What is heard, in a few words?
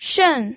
"shen4", pinyin